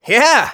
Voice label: scout yes, de

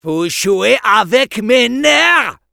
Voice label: Medic jeers, fr

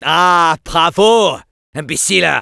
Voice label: Medic jeers, fr; "Aaah bravo… Imbéciles !"; Robot Medic

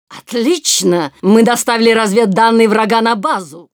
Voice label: intel team captured ru

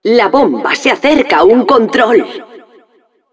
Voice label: announcer cart defender warning, es; La bomba se acerca a un control.; Respuestas de la Narradora

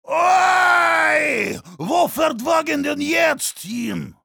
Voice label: cart moving forward defense, de

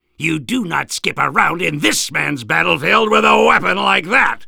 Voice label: Soldier weapon taunts

